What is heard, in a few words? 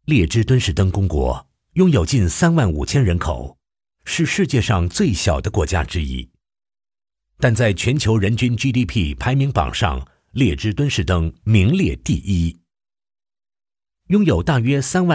voices CHINESE male adult MANDARIN; KER-SOUND